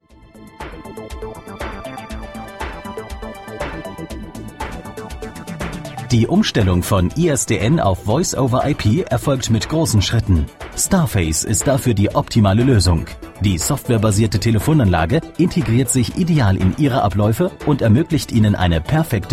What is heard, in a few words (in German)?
Warteschleife mit Infotext; Leopold Netzwerke